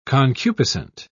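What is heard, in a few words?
"concupiscent", British English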